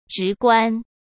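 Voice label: "直观", Mandarin Chinese; hsk; chinese-flashcards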